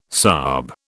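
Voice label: "sahib", British English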